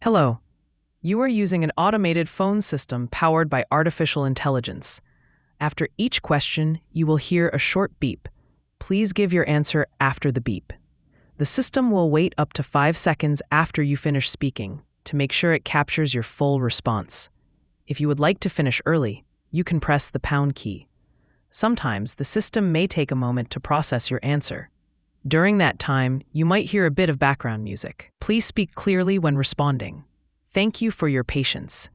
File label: welcome to ivr